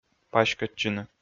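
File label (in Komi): "паськӧдчыны", Komi, male; audio dict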